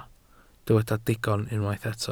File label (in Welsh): "digon", Welsh